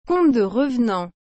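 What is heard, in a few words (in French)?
"conte de revenant", French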